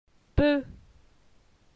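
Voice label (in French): "Peu", French; phonetique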